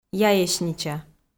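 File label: "яеснича", Komi, female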